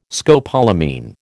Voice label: "scopolamine", American